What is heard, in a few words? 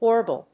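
"orgol", English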